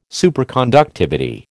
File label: "Superconductivity", American